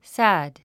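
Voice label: "sad", English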